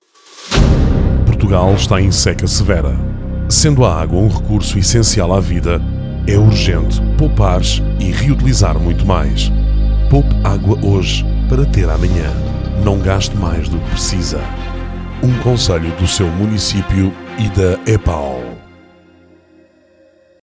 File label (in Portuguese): spot radio; seca 2022; agua